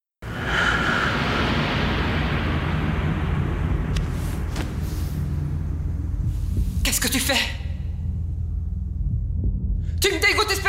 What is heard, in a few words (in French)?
film tv voix doublee et Packshot; Innocence en danger